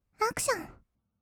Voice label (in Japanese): アクション, kawaii voice; 音ゲー